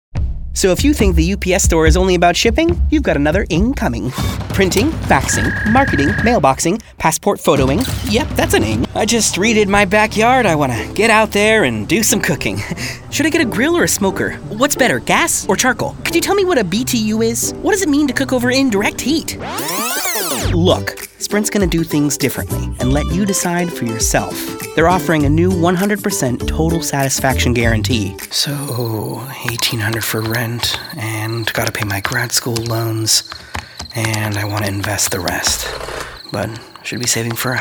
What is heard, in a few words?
Commercial